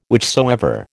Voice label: "whichsoever", American

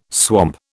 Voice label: "swamp", British

